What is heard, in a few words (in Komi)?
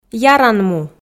"яран му", f